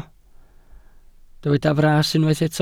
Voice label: "fras", Welsh